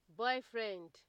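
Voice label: "boyfriend", Nigerian Pidgin, female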